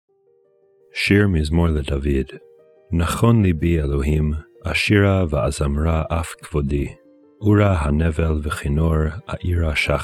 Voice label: psalm reading hebrew